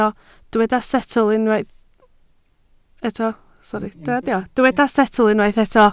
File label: "setl", Welsh